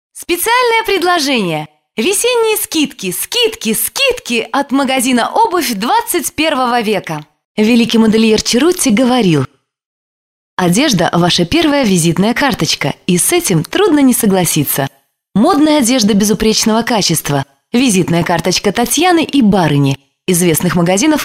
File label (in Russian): Демо голоса. Диктор; voices female